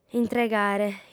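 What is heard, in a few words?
"intregare", Sardinian, female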